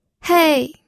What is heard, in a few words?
"hei4", pinyin audio